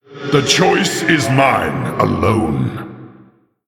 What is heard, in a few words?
Male Orc Thinking; Thrall Deathknight